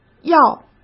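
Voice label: "要", Mandarin Chinese; chinese-flashcards; hsk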